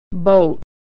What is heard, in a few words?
"boat", pronunciation